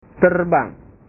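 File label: "terbang", Indonesian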